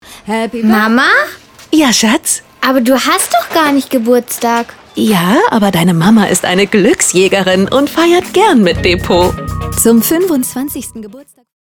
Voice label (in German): Werbung Szene; Depot